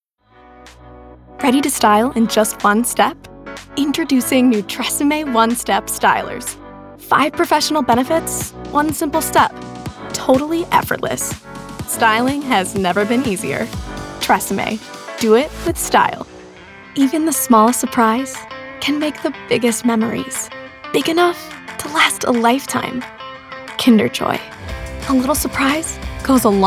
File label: female commercial